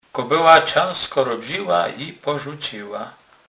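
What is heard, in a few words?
"porzucec", Polish, Kurpie dialect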